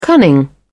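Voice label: "cunning", British English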